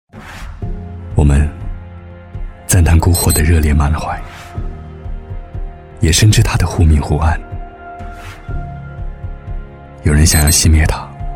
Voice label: 男 高端广告 明亮

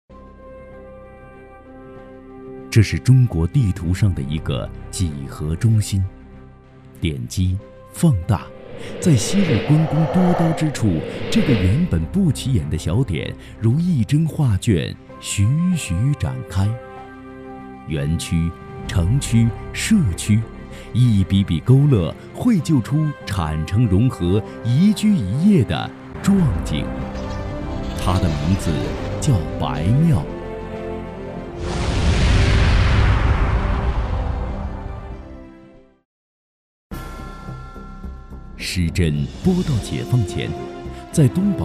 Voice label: 男,大气讲述类; 白庙

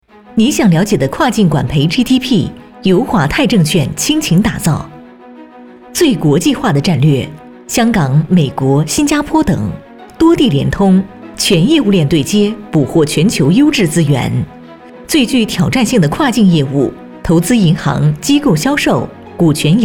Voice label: 女 明快 电梯广告; 华泰证券

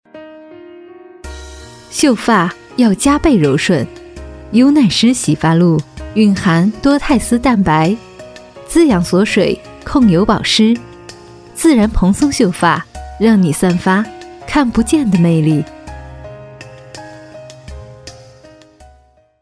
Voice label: 女 广告

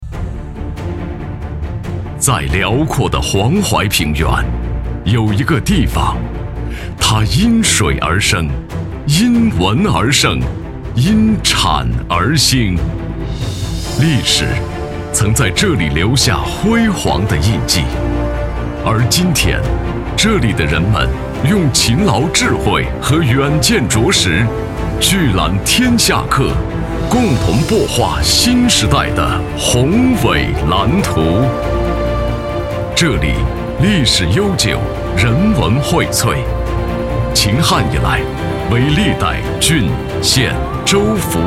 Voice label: 男 专题, 男; 颍州

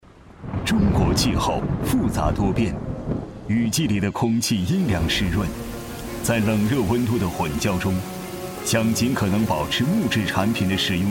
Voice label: 男 科技时尚; 卫浴综合产品

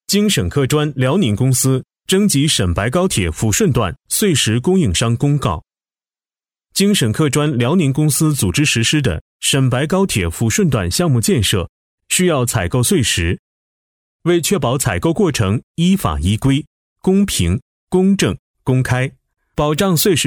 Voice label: 男 公告; 京沈客专公告

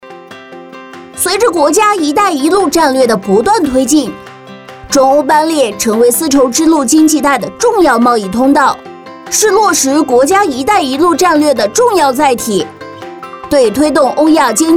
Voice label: 女 MG 童声; 一带一路

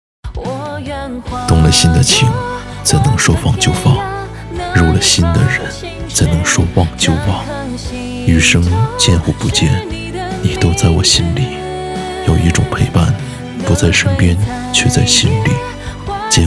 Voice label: 男 旁白; 动了心的情3

